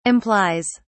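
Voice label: "implies", British English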